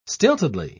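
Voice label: "stiltedly", British English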